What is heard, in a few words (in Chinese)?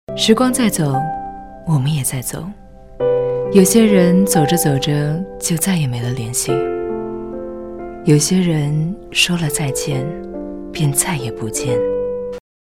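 女旁白第一人称; 年轮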